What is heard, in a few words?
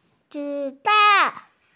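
"zi3dan4", Mandarin Chinese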